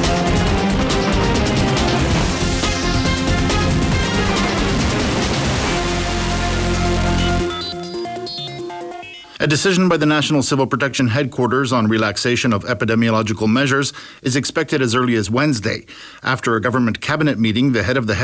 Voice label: croatia english, stations